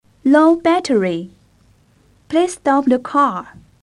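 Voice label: 8k 8nonPCM English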